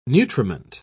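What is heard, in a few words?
"nutriment", English, British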